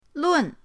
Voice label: "lun4", pinyin